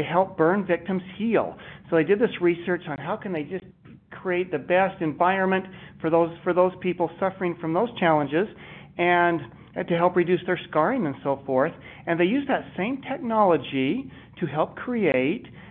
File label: Corporate Smooth Training